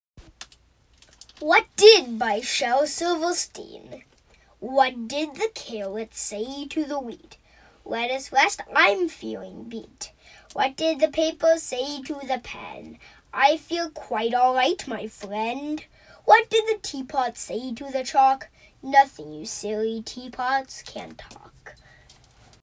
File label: kids commercial; Elementary age with lots of vitality and range.